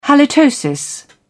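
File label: "halitosis", British English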